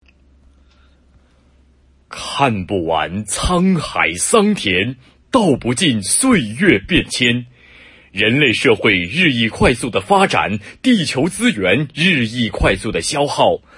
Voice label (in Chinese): 纪录片